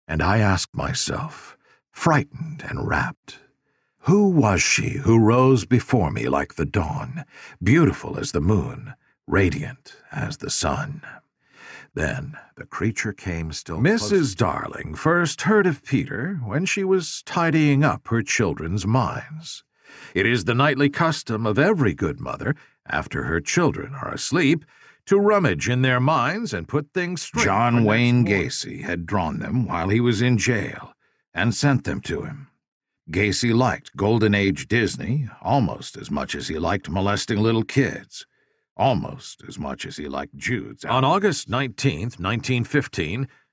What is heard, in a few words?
Audio Book Demo